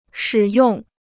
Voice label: "使用", Chinese

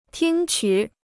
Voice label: "听取", Chinese

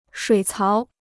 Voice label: "水槽", Chinese

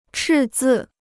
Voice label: "赤字", Chinese

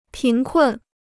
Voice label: "贫困", Chinese

